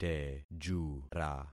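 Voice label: "tejura", concatenated syllables; Affixing experiment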